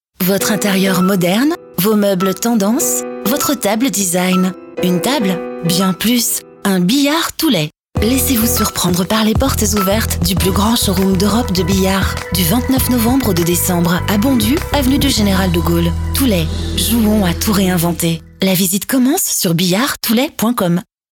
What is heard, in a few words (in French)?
spot radio; BILLARD TOULET